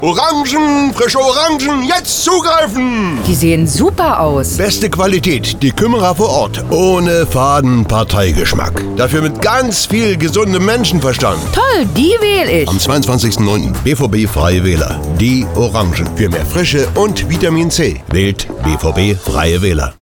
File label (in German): radiospot; frische orangen